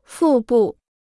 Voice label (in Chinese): "腹部", Chinese